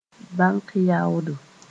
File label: "bãnk yaoodo", Mooré